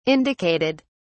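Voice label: "indicated", British English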